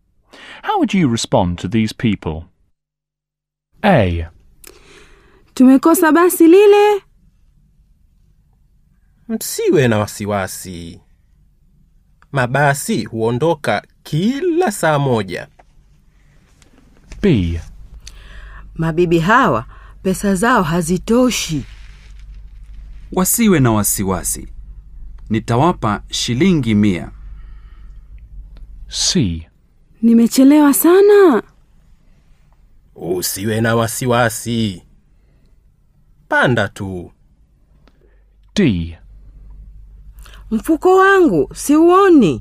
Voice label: Exercise, Swahili; Teach yourself Swahili audio